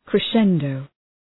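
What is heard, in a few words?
"crescendo", English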